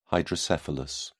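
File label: "hydrocephalus", British English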